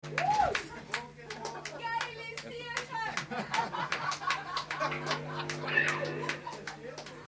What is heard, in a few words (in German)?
kommentar